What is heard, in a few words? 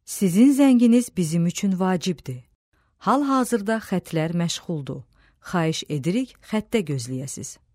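Aze, Azerbaijani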